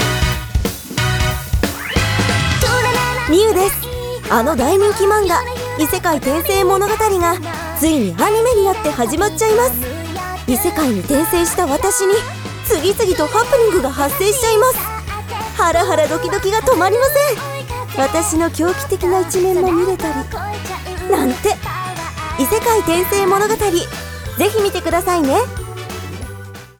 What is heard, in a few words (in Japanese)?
キャラクターナレーション・セリフ